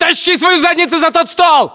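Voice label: order cover desk, battlechatter, Russian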